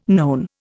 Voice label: "known", Indian

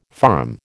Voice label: "farm", American

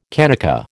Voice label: "kanaka", American

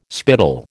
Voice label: "spittle", American

